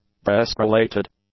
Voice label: "breast-related", English; adjectives